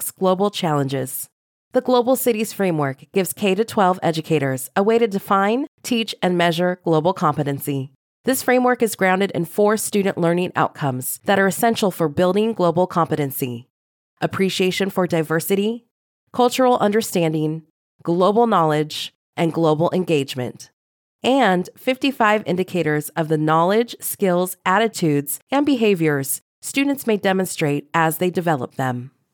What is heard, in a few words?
Explainer Video Education